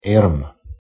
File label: "airm", Scots